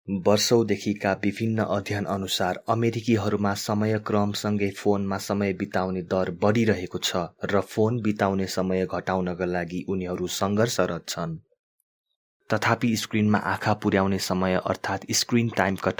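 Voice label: Nepali, male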